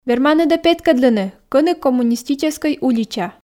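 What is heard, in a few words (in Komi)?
верманныд-ӧ ті петкӧдлыны кӧні коммунистическӧй улича, Komi, female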